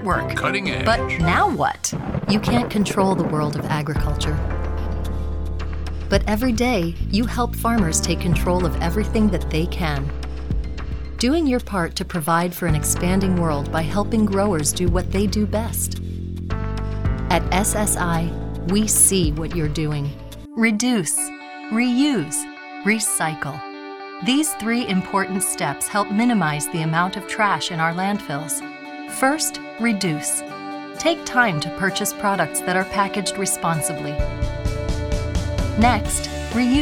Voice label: Business Voice Over